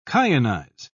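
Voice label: "kyanize", British English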